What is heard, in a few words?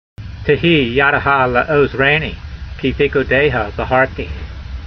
voice, hebrew language; Psalm 119:173; tehee-yadeha le'ozraynee, kee feekoodeyha vahartee